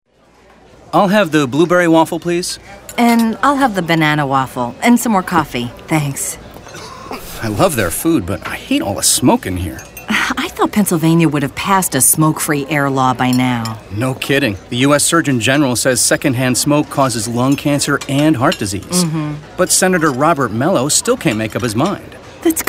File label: Radio Mellow